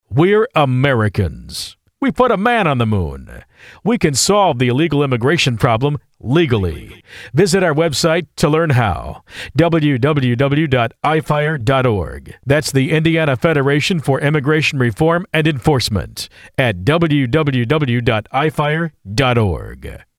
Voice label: ad